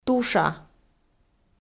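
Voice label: "туша", Komi, female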